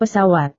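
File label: "pesawat", Indonesian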